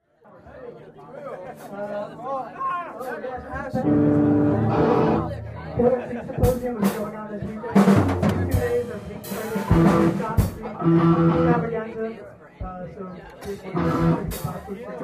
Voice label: announcement